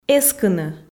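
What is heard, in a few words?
"эскыны", Komi, female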